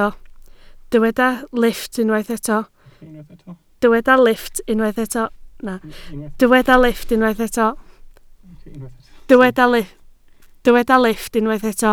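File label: "lifft" Welsh, spoken word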